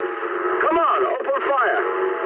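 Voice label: English attack position mood med; War Thunder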